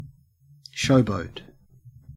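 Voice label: "showboat", Australian English; pronunciations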